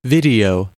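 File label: "video", US English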